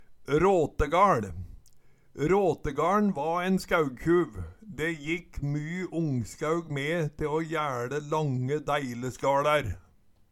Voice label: rategaL, Numedalsmål

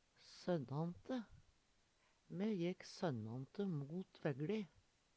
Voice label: sonnante, Numedalsmål; Preposisjon

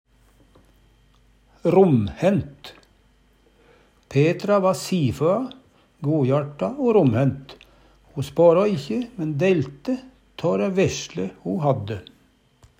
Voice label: romhænt, Numedalsmål

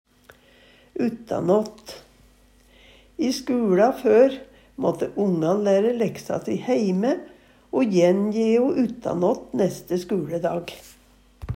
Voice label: uttanåt, Numedalsmål

